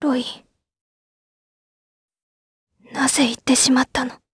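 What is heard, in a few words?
vox dialogue, voices, Japanese; Tanya; kingsraid audio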